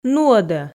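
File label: "нуӧдӧ", Komi, female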